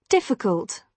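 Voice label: "difficult", British English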